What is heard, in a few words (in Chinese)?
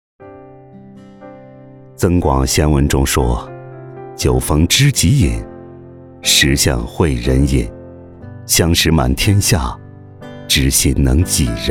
电视散文; 懂你的知己